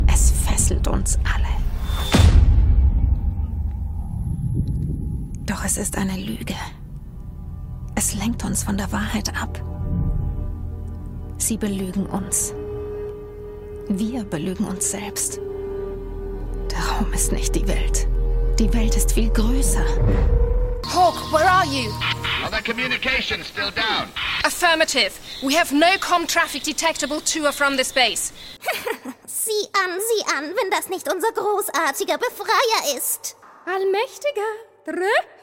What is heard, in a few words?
English German character drama games